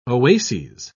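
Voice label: "oases", English, British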